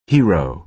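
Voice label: "hero", American English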